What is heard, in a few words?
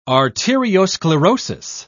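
"arteriosclerosis", English, British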